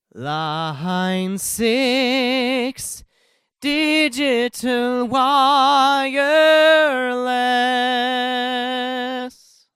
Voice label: sm58; Line 6 XD-V70